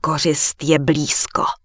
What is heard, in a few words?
Night Elf Female loot too far; Error Messages; wowpreklad; czwow